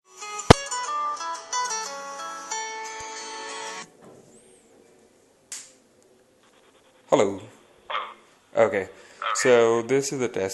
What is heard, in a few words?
call no aec; pulseaudio